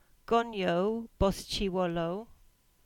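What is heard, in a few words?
"Bosullow Common", Cornish; place-names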